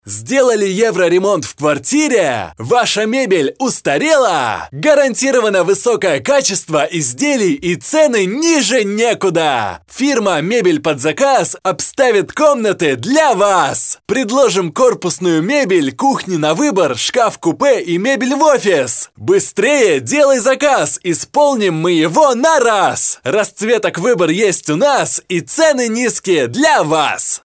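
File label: Russian male Active, vo examples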